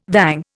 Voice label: "vang", Indian

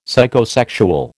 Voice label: "Psychosexual", American

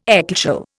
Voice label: "ecclesial", Indian

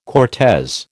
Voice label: "Cortes", American

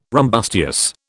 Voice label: "rumbustious", British

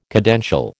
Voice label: "cadential", American

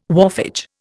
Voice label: "wharfage", Indian

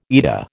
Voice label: "eta", American

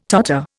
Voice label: "totter", Indian

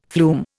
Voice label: "flume", Indian

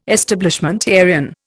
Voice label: "Establishmentarian", Indian

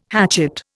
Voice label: "hatchet", Indian

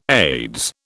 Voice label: "Aids", British

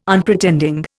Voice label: "Unpretending", Indian

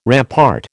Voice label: "rampart", American

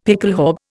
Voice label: "pickelhaube", Indian; Dictionary

